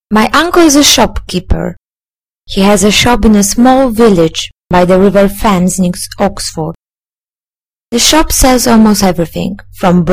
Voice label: reading; Powtarzamy zaimki z poprzedniej lekcji oraz nowe słowa.